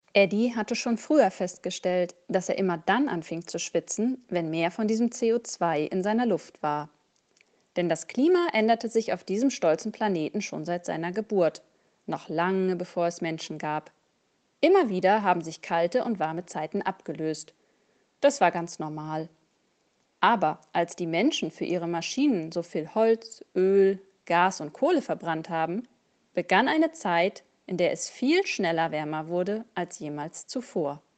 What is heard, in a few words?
German; wissenschaftliche kurzgeschichten